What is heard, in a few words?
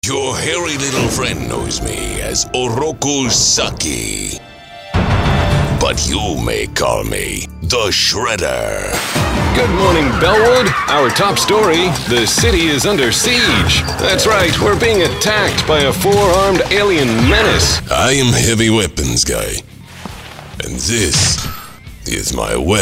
animation men